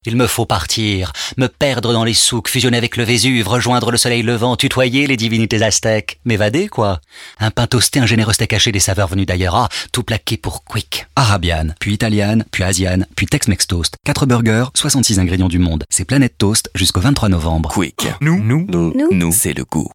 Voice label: Lyrique speed; Quick

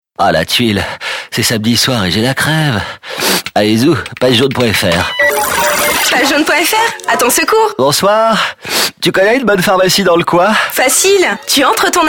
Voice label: Enrhumé dragueur; Pages Jaunes

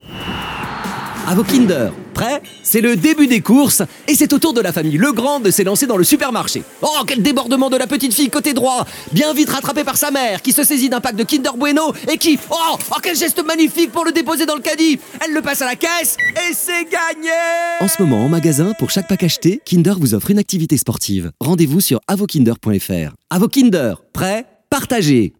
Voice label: Commentateur sportif; Kinder

